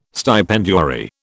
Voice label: "stipendiary", British

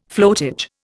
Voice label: "floatage", Indian

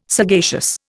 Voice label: "sagacious", Indian

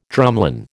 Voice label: "drumlin", American

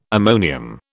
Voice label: "ammonium", British

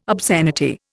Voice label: "obscenity", Indian

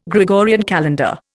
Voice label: "Gregorian calendar", Indian

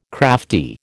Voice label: "crafty", American

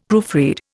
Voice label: "proofread", Indian; Dictionary

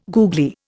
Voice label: "googly", Indian

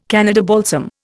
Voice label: "Canada balsam", Indian

